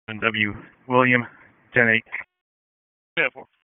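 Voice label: Sheriff's Office Dispatch; WSO DISP 1; wake; p25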